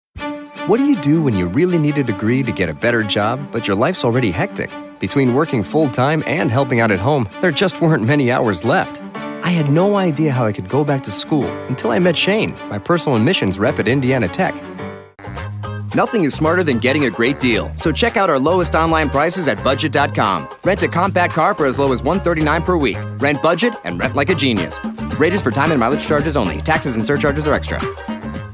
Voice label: commercial, men